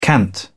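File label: "cant", British English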